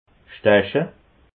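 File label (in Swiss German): "stache", Alsatian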